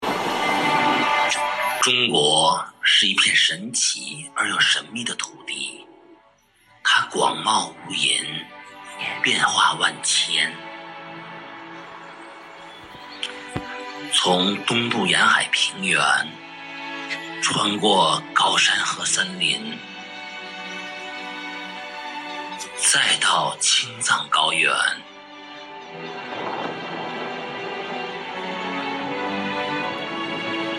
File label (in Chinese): 宣传片; 我们诞生在中国